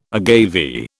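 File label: "agave", British English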